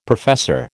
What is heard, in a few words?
"professor", American